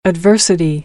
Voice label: "adversity", American English